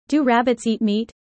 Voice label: user fast; Animal friends